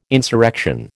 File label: "insurrection", American